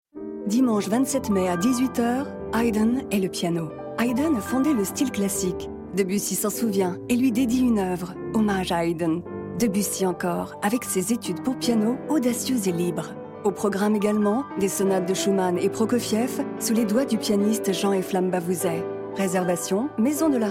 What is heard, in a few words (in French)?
radio bandes annonces; RADIO FRANCE